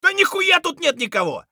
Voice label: clear, voice; Escape from Tarkov; scav4